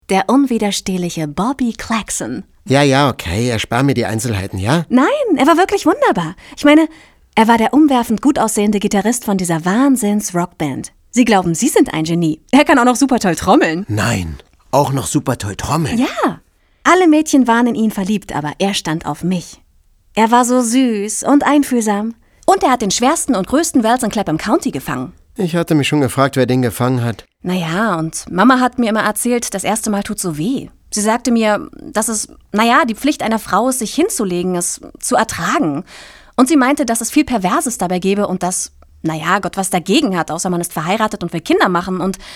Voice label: deutsch frauen Dialog; sprecher

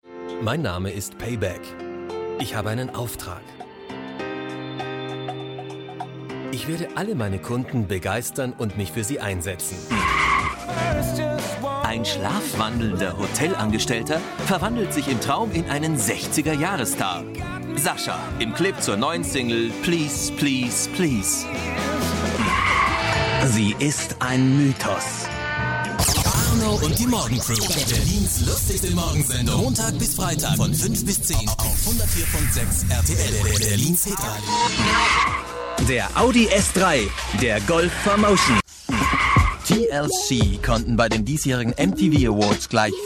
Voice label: werbespot, deutsch maenner; sprecher

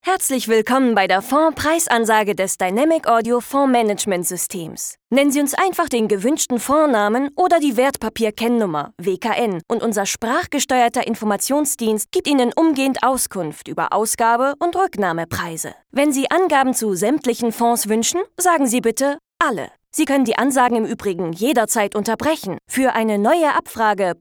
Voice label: telefon, deutsch frauen

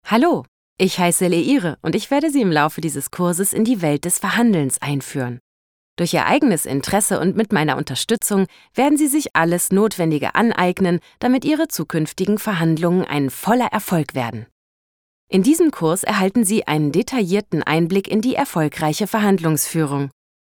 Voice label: elearning, deutsch frauen

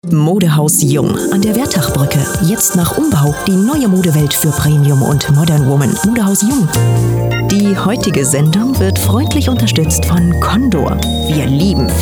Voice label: werbung, deutsch frauen

